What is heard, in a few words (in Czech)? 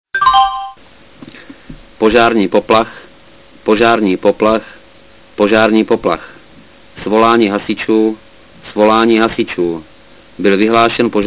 verbalni pozarni poplach, sireny